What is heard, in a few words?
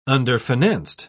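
"underfinanced", English, British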